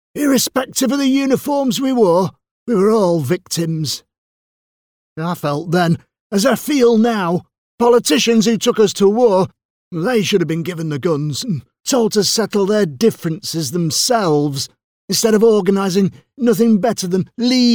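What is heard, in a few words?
voiceover narrative character war veteran, British character voice over; character voices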